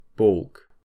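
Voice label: "baulk", British English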